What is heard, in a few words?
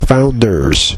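"founders" US English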